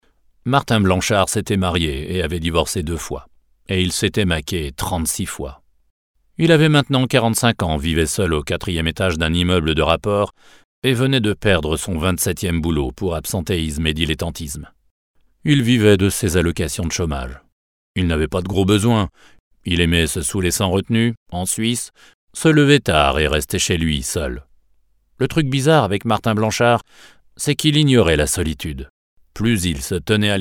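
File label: French, Schauspiel Neutral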